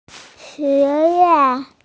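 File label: "college", female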